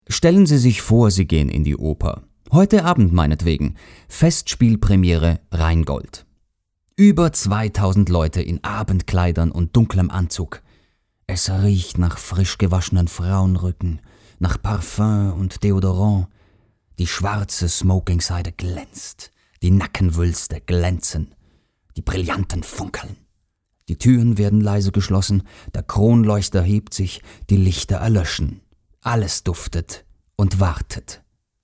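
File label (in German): Austrian, acting, Lebendig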